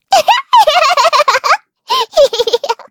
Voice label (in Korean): Vox Happy, kr; Taily; Kings Raid